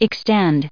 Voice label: "extending", us